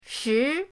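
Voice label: "shi", Mandarin pinyin, second tone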